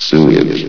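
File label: "sewage", male synthetic announcer voice; source engine; hl1; video games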